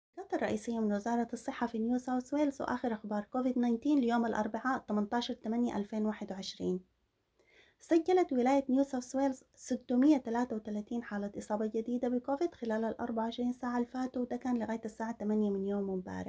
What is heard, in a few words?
sudanese; covid-19 coronavirus; covid-19 daily key points